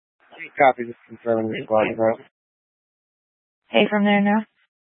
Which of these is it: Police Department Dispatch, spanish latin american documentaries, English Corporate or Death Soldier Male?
Police Department Dispatch